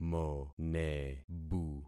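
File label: "monebu", concatenated syllables; Affixing experiment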